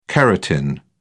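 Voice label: "keratin", British English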